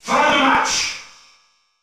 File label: French Announcer; SSB